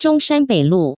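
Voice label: zhongshan beilu, Taiwan Mandarin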